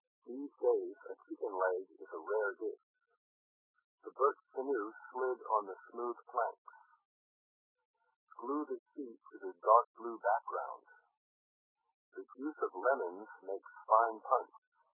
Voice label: pshift male, shift of frequencies above 1500 Hz with ratio=-8 semitones and offset=-100 Hz, after compression and decompression by the VLC 8 codec at 12250 bps